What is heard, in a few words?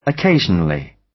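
"occasionally", British; Flora and fauna, agriculture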